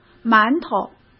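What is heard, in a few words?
"馒头", Mandarin Chinese; chinese-flashcards; hsk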